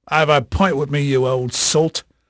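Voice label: English male, voice; Pirates Of The Caribbean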